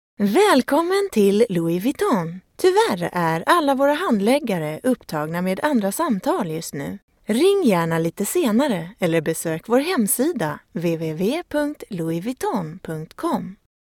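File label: SE F telecom, Swedish, female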